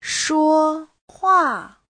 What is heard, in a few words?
"shuo1hua4", Chinese